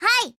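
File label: AGREE, Japanese; BrowningHP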